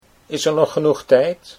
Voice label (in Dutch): "is er nog", Dutch